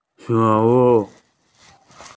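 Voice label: "realize", Mandarin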